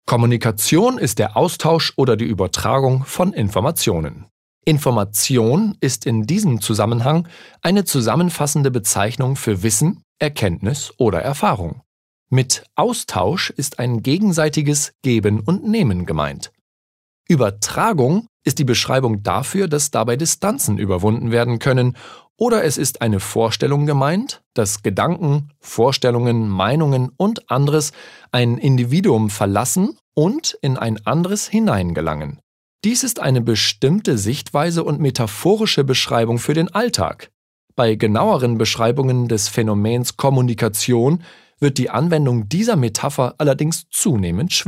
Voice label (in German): Neutral; Kommunikation